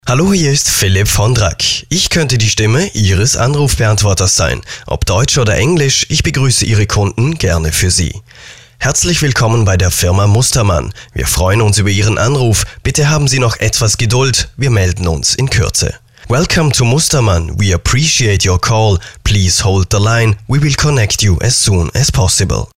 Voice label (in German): Sprecher Telefonschleifen